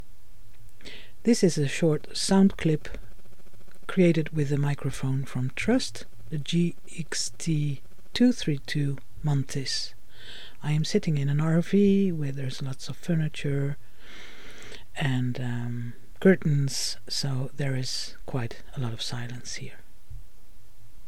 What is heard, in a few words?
sound clip microphone trust gxt232 Mantis meditations